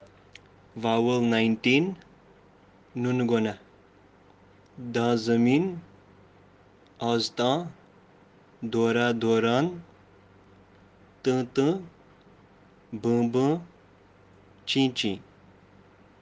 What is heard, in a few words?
vowel kashmiri vowels